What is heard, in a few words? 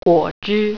"juice", Mandarin